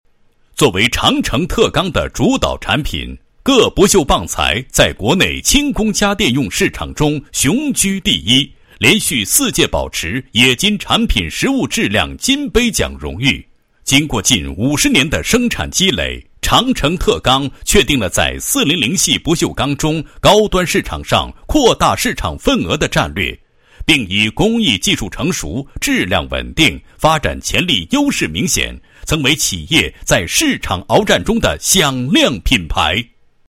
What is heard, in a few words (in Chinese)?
男 颁奖 颁奖词, 国语男声配音